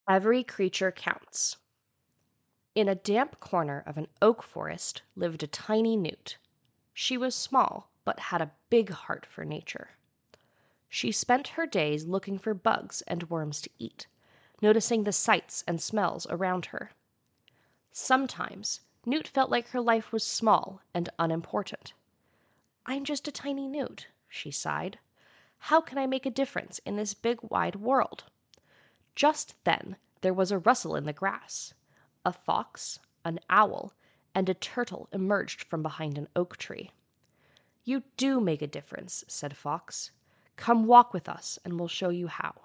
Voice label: Nature Story English; CuriOdyssey; Every Creature Counts